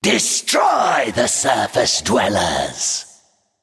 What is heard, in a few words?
Male Naga Play; Lord Slitherspear